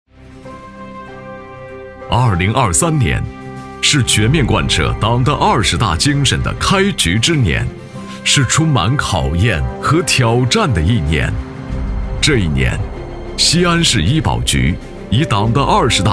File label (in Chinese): 男, 党建专题, 厚重稳重; 醇厚磁性 成熟厚重